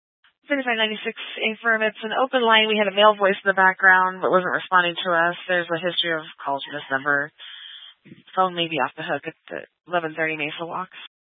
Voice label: Police Department Dispatch; Grand Junction

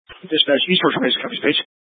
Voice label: Fire Department Dispatch, scanner; Grand Junction

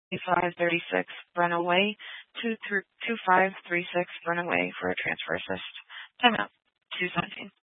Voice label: Fire Department Dispatch, scanner

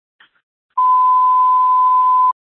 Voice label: Grand Junction Fire Department Dispatch

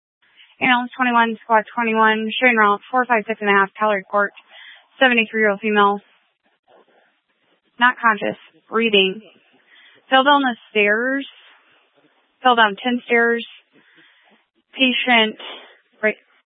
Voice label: Grand Junction Fire Department Dispatch

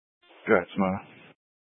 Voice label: Sheriff Dispatch, scanner; Mesa County

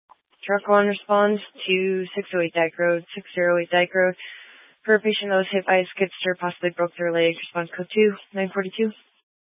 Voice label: Fire Dispatch, scanner

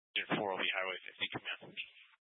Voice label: Tactical Incidents, scanner

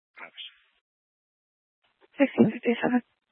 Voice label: Fire Department Dispatch; Grand Junction

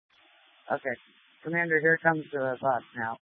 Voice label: Tactical Incidents, scanner

